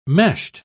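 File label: "meshed", British English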